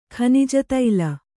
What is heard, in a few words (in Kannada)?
"ಖನಿಜತೈಲ", Kannada